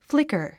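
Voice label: "flicker", 英単語 発音; flíkə r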